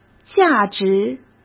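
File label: "价值", Mandarin Chinese; chinese-flashcards; hsk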